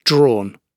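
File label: "drawn", British English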